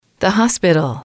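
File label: "hospital", English, female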